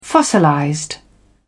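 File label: "fossilized", English, British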